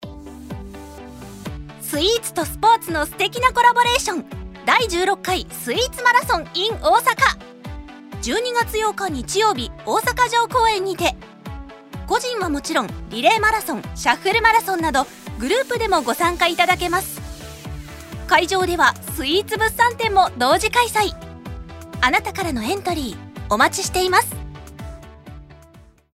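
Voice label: radio commercial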